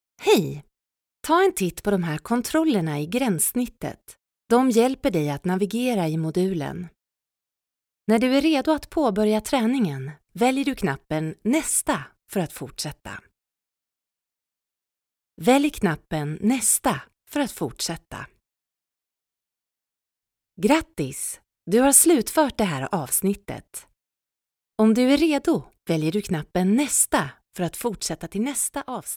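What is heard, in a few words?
Swedish, female, e-learning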